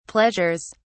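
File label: "pleasures", British English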